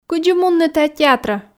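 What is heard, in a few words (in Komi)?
кыдзи мунны тайӧ театрӧ, Komi, female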